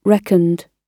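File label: "reckoned", British English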